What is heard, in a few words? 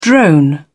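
"drone on", British English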